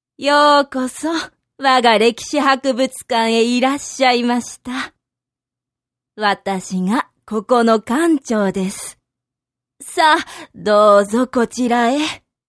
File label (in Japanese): obaasan